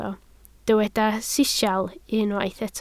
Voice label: "sisial", Welsh